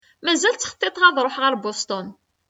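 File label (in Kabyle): Mazal ttxeṭṭiṭeɣ ad ṛuḥeɣ ɣer Boston., Kabyle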